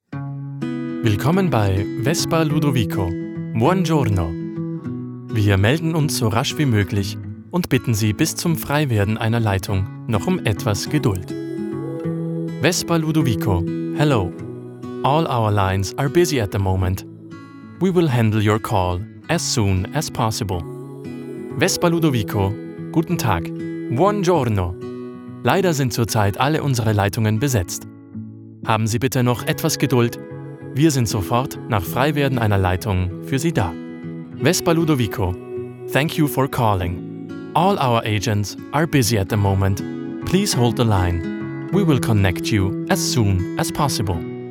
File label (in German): Anrufbeantworter